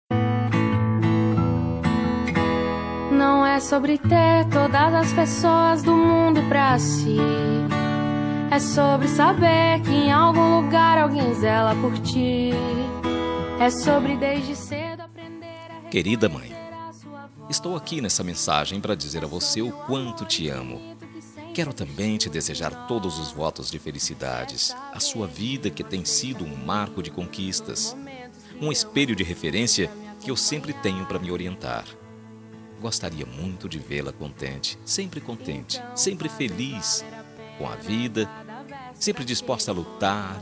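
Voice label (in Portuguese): telemensagem, male; MAES DISTANTES MASC; VERSAO ESPECIAL DIA DAS MAES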